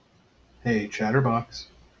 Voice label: hey chatterbox, en us